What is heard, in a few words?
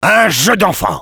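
positive vocalization, French; Sniper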